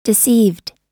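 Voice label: "deceived", US English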